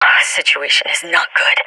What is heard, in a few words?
scared; Counter Strike Model Pack; CS:GO